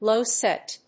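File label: "low-set", English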